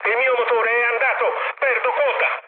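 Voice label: Italian damaged engine mood med, speech; War Thunder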